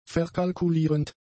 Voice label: "verkalkulierend", German; adjectives